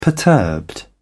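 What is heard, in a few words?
"perturbed", British English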